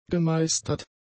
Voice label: "gemeistert", German; adjectives

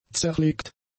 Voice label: "zerlegt", German; adjectives

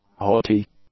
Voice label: "haughty", English; adjectives

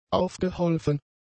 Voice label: "aufgeholfen", German; adjectives

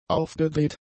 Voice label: "aufgedreht", German; adjectives

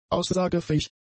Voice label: "aussagefähig", German; adjectives

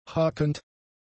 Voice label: "hakend", German; adjectives

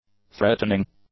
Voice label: "threatening", English; adjectives

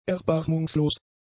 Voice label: "erbarmungslos", German; adjectives

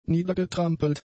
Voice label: "niedergetrampelt", German; adjectives

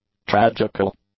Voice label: "tragical", English; adjectives

